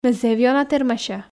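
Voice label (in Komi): ме зэв ёна тэрмася, Komi, female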